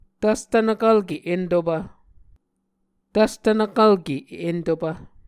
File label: "tvstvnvkvlke entopv", Muscogee